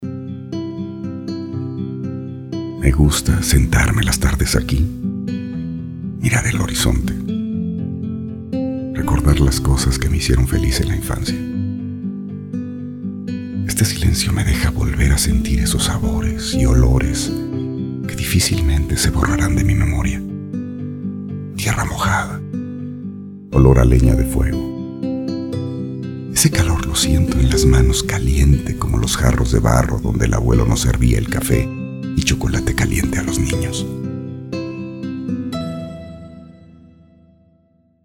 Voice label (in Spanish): locucion tono reflexiva locutor con musica